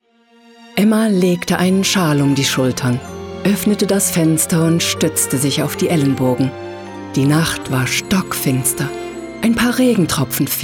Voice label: German; Cinderella

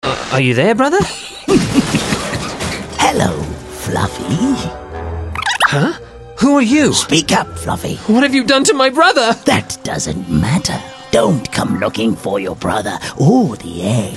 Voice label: Character

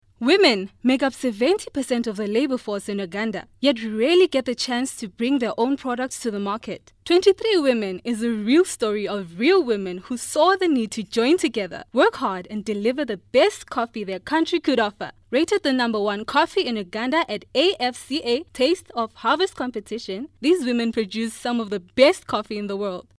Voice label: corporate

